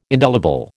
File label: "indelible", American